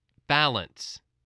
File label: "balance", english